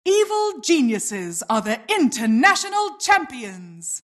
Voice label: announcer team champs